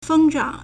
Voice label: "feng1 zheng1", Chinese

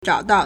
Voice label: "zhao3dao4", Chinese

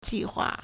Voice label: "ji4 hua4", Chinese

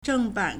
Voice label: "zheng4ban3", Chinese

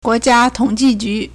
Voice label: "guo2jia1tong3ji4ju2", Chinese

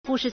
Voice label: "bu4shi2", Chinese

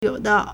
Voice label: "you3 de", Chinese

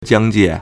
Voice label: "jiang1 jie4", Chinese

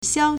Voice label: "xiao1", Chinese

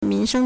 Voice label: "min2sheng1", Chinese

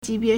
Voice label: "ji2 bie2", Chinese

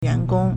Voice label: "yuan2gong1", Chinese; 员工; 員工; yuángōng; staff; personnel; employee

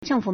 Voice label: "zheng4fu3", Chinese

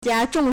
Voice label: "jia1 zhong4", Chinese

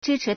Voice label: "zhi1chi2", Chinese

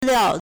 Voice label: "liao4", Chinese

